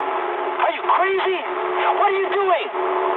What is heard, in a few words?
eng player killed ally mood high, speech eng; War Thunder